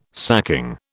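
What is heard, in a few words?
"sacking", British English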